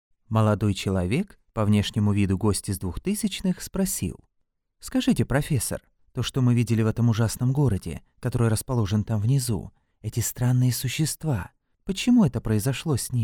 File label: russian audiobooks